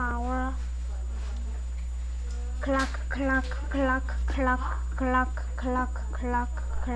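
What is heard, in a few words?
"clock", spoken word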